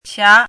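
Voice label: "qia2" Mandarin Chinese, rising tone; chinese-voice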